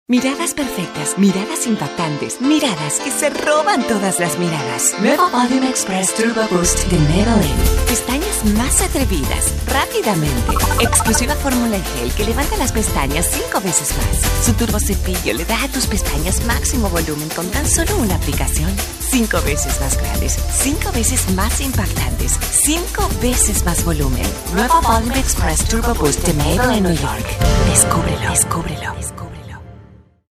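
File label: latam female commercial; voice demos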